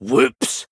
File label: Male Dwarf Oops